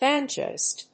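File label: "banjoist", English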